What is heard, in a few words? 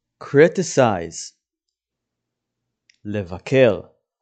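"criticize" English, "לבקר" Hebrew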